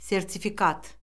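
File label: "sertifikat", Azerbaijani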